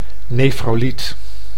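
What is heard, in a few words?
"nefroliet", Dutch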